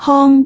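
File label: "hong1", Cantonese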